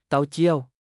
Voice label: "ເຕົ້າຈ້ຽວ", Lao